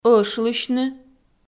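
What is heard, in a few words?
"ӧшлысьны", Komi, female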